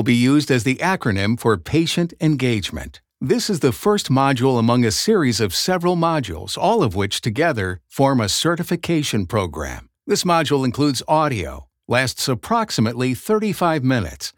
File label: E-Learning How to